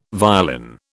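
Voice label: "violin", British English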